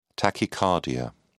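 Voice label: "tachycardia", British English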